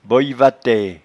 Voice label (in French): "boyevatee", patois jura